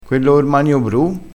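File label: "a posto", Italian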